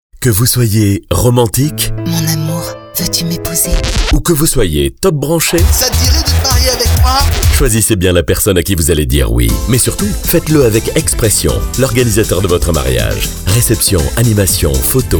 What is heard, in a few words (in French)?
radio pub spot